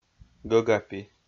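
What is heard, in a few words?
"гӧгапи", Komi, male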